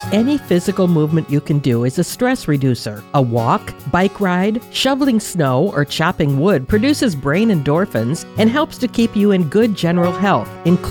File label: Female